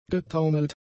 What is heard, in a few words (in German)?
"getaumelt", german; adjectives